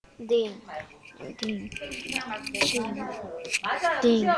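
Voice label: "ding", spoken word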